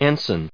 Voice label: "ensign", us